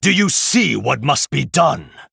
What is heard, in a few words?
Male Night Elf